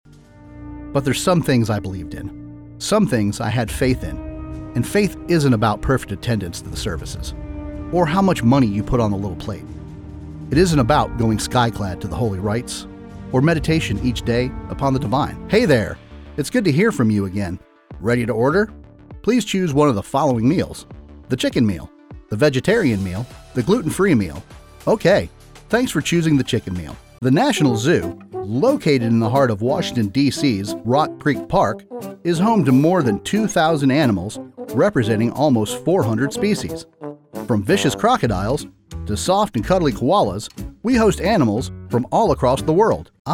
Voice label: Narration Demo; Clearwater, Fl